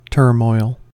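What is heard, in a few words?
"turmoil", American English; pronunciations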